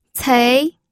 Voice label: "cei2", pinyin

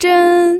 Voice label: "zhen1", pinyin

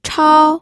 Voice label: "chao1", pinyin

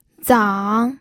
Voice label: "zang3", pinyin

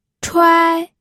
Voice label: "chuai1", pinyin

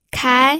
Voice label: "kai2", pinyin

